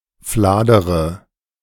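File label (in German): "fladere", German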